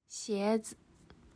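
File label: "鞋子", Chinese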